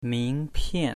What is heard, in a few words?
"mingpian" Chinese; Chinese101; Lesson3